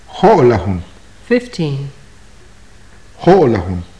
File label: numbers, maya